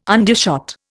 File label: "undershot", Indian